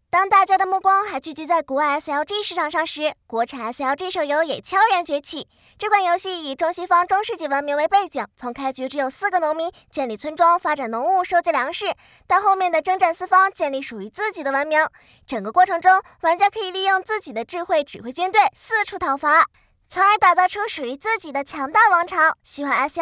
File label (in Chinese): 萝莉